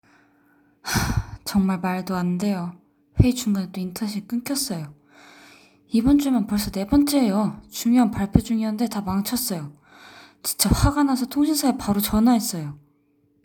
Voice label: Young Female Angry, korean voice emotion